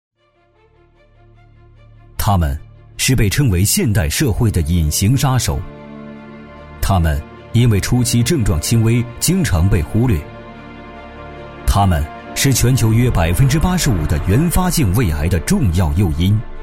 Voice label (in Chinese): 男 专题片 严肃大气; 男D18-浑厚有力